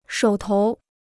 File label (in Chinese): "手头", Chinese